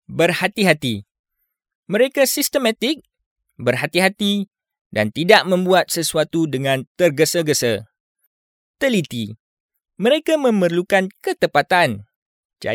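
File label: Malay Malaysia male voice over, sales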